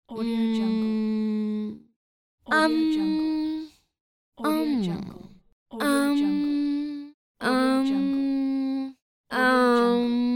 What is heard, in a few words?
female voice "uhm"